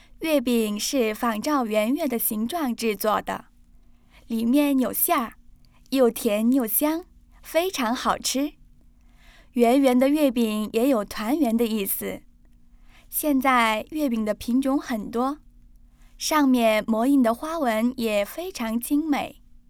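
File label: chinese mandarin female narration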